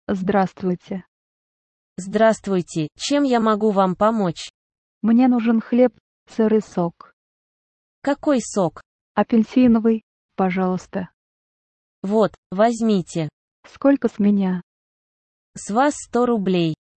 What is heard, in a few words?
shopping dialogue in the russian